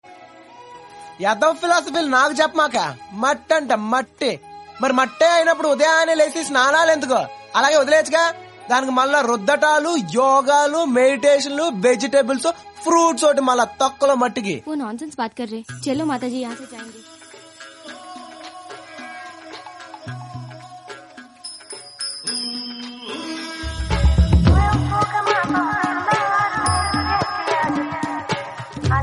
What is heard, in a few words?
Dialouge Ringtone; Allu Arjun; Desamuduru